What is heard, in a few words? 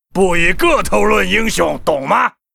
Gryphon rider pissed